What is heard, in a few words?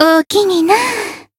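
Voice healed, Japanese; hoozuki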